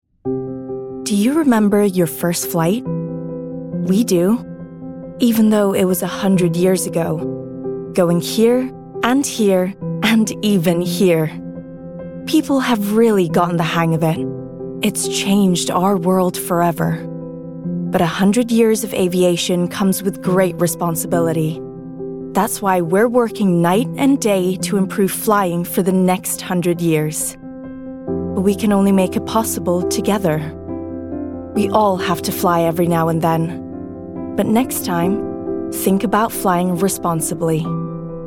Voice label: Commercial, 30s